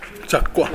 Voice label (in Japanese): "zakko", minami yamagata